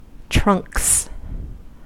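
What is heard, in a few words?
"trunks", American English; pronunciations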